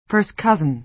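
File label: "first cousin", American English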